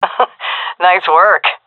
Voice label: compliment, vo fem; agents; Source Model Packs; Counter Strike Model Pack; CS2

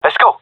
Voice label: round start, gendarmerie male; vo

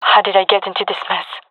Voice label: scared, fem; vo; gendarmerie fem epic

